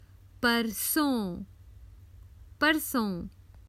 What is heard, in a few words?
The day after tomorrow, Common Hindi Phrases for travel